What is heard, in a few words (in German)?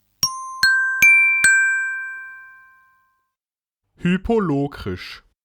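"hypolokrisch", German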